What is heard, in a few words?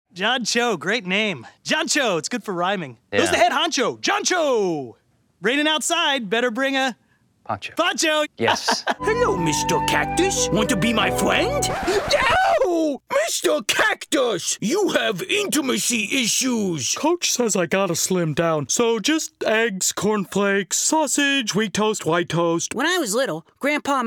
Animation